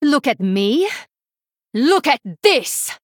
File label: Female Draenei; Shadow Priest Xyrella